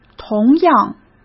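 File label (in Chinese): "同样", Mandarin Chinese; hsk; chinese flashcards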